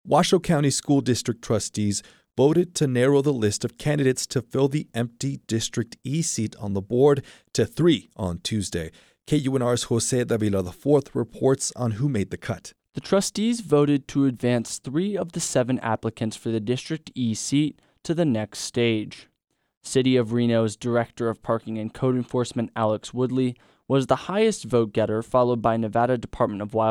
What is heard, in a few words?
narration